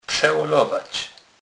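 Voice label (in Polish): "przelolowac", Kurpie dialect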